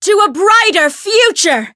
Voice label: variable chant

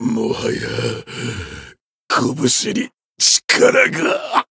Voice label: retire, jp

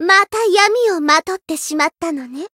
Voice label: Voice growth jp; suzette

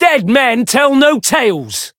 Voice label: variable chant

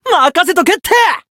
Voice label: variable chant, jp

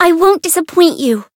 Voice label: chant; saki

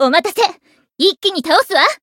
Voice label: variable chant jp

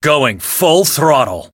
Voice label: variable chant

